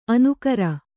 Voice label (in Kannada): "ಅನಕರ", Kannada